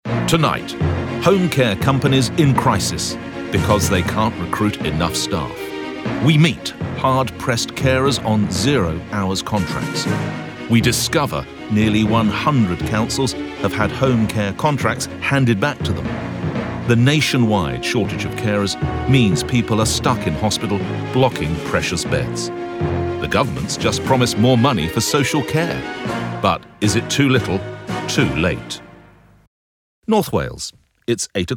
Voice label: Narration

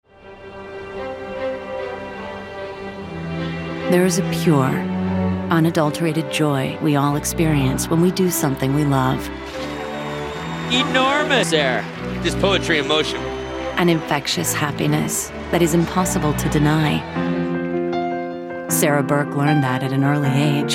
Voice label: narration

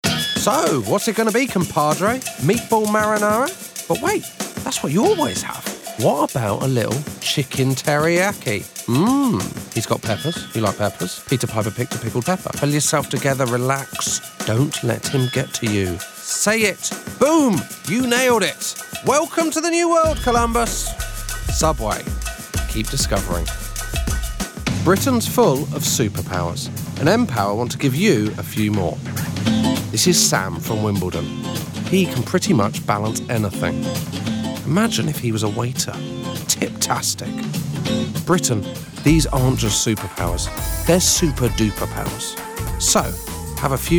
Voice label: Commercials

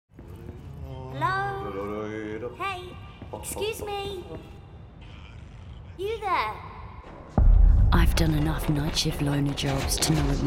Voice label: character

